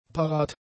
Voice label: "parat", German; adjectives